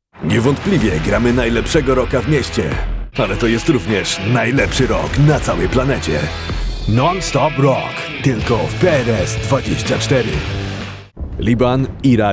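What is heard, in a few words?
Polish, Commercial; GoLocalise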